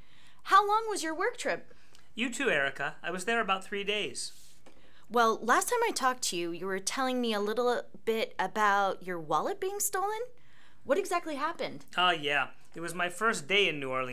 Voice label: Conversation